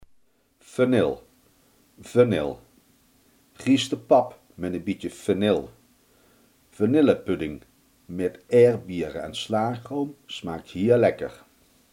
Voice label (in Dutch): "vanille", limburgse